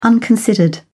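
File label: "unconsidered", British English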